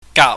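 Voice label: "gaap", Cantonese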